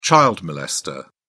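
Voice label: "child molester", British English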